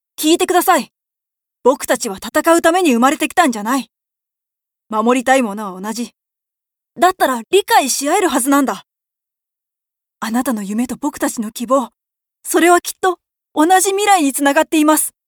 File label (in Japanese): 少年